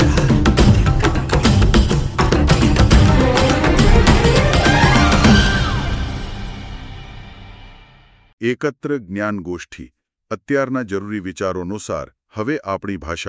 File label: Gujrati; Engineering